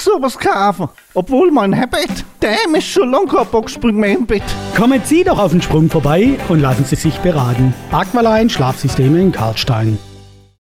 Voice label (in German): Radiospot Boxspringbett